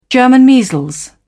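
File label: "german measles", British English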